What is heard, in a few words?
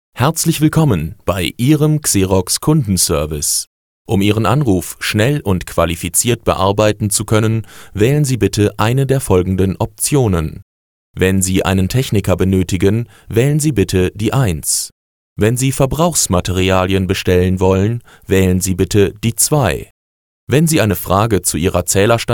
ivr; xerox